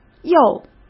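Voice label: "右", Mandarin Chinese; chinese-flashcards; hsk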